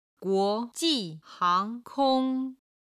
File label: "guo2 ji4 hang2 kong1", Chinese